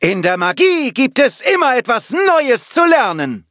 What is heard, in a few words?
levelup de; cookie run